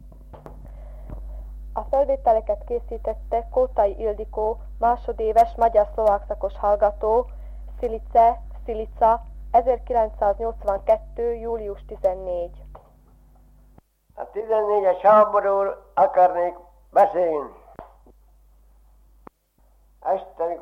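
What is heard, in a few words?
dialect collection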